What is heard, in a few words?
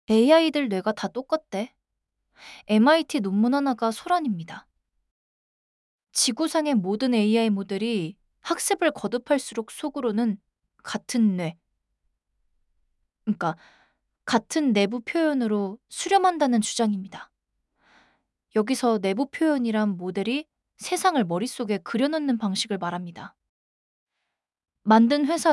audiobook ko; every ai same brain